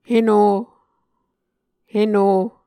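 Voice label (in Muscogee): "heno", Muscogee word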